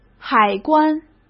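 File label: "海关" Mandarin Chinese; chinese flashcards; hsk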